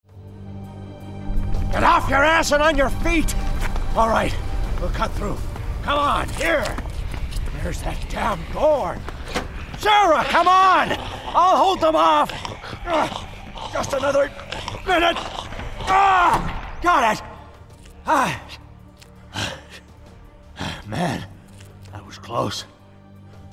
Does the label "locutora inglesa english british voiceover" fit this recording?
No